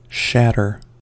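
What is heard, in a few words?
"shatter" English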